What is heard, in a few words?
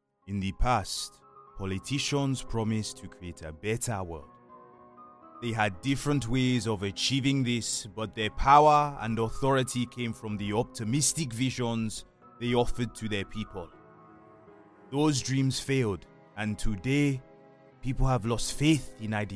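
African English narrative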